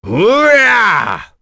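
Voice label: Vox Skill, voices heroes English; Phillop; kings raid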